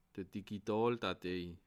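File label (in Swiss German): "digitaldatei", Bas Rhin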